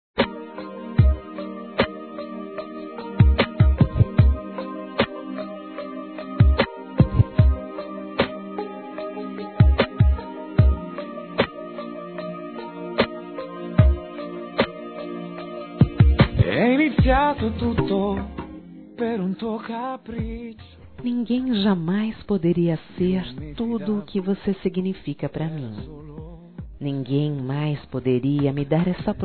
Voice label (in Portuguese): ROMANTICA F; Telemensagens; Românticas